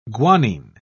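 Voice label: "guanine", British English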